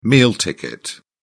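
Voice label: "meal ticket", British English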